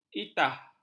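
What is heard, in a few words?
"itah", Malay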